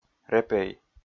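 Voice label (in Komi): "репей", Komi, male; kpv audio dict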